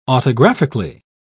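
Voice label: "autographically", English, British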